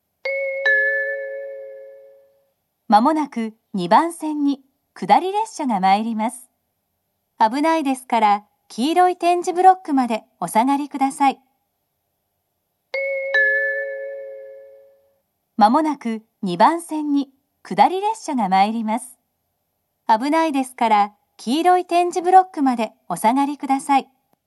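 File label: platform 2 down approaching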